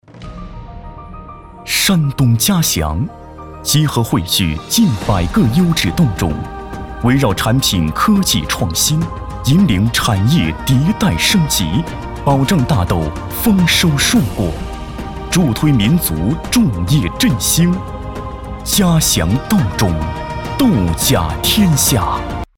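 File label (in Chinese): 宣传片-男30-企业宣传; 山东嘉祥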